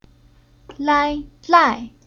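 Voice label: "Lai", Chinese syllable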